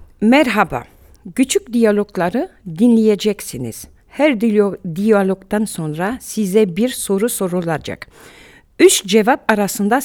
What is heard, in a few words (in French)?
consigne turque; Positionnement-FLE-Bruxelles